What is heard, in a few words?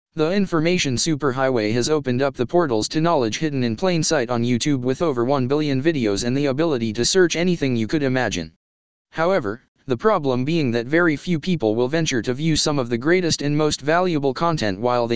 text 2 voice